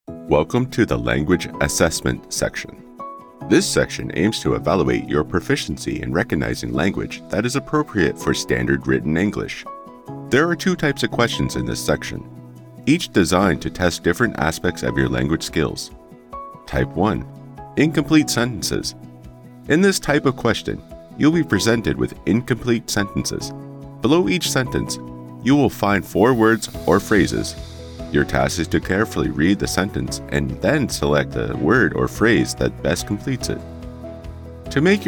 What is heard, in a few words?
eLearning